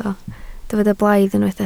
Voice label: "blaidd", Welsh

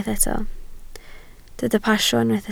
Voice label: "pasio", Welsh

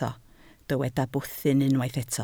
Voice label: "bwthyn", Welsh

